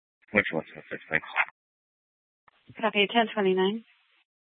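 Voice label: Police Department Dispatch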